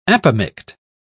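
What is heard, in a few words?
"apomict", British English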